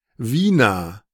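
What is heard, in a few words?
"Wina", German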